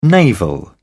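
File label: "naval", British English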